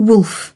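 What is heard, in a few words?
"wolf", British English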